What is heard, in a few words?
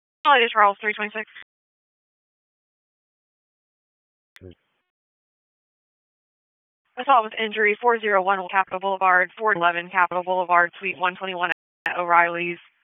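Raleigh Police Northeast District Dispatch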